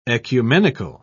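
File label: "oecumenical", English, British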